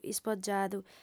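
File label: "ispogiadu", Sardinian, female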